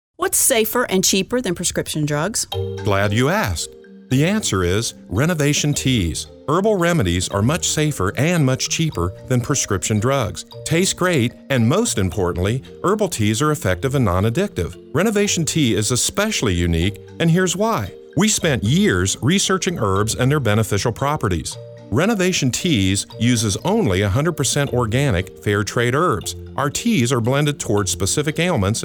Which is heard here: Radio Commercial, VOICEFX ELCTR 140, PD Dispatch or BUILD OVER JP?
Radio Commercial